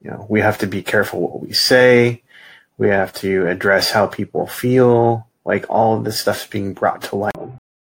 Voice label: Male Asian Pacific Islander